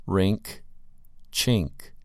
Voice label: "rink" "chink"

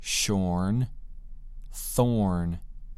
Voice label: "shorn" "thorn"

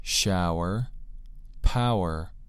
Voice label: "shower" "power"

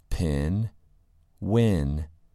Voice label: "pin" "win"

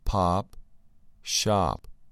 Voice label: "pop" "shop"

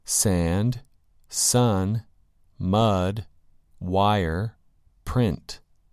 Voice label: "sand" "sun" "mud" "wire" "print"

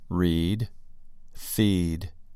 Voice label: "read" "feed"

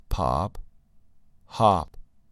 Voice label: "pop" "hop"

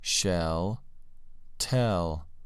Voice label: "shell" "tell"

